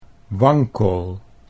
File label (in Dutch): "wankel", Dutch